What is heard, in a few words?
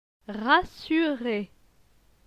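"Rassure", French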